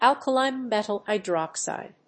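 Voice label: "alkali metal hydroxide", English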